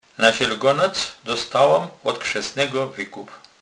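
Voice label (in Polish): "krzesny", Kurpie dialect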